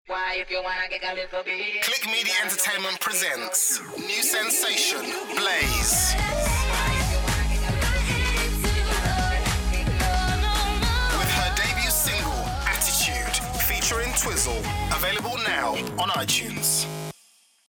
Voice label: Attitude Promo; Click Blaze

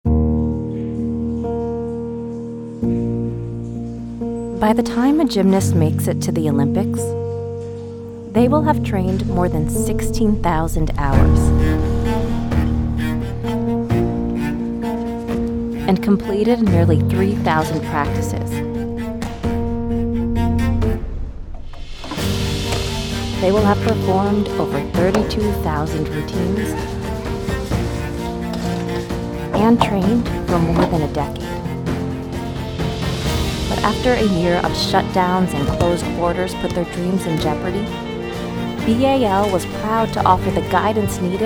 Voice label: Inspirational Spot

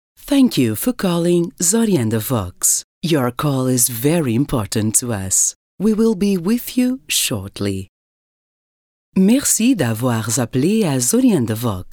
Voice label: IVR eng-fr

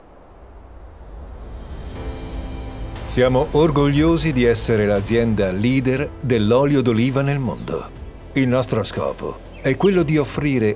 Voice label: ITALIAN; DEOLEO

